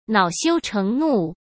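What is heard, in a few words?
"恼羞成怒", Mandarin Chinese; hsk; chinese-flashcards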